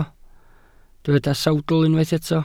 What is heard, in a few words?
"sawdl", Welsh